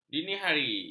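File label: "dinihari", Malay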